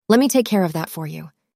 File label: synthesized audio